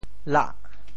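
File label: "lah8", Teochew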